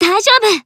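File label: Voice Emo Inspire Team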